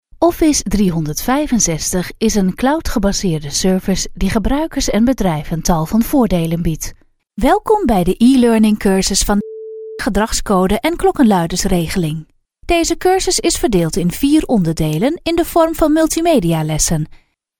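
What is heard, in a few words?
e-learning, Dutch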